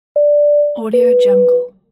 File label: flight attendant announcement